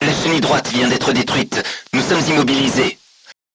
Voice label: voice message right track knocked down, crew tanks french